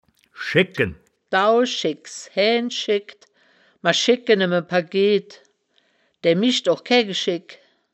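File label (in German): "schegken", wittlicher woerterbuch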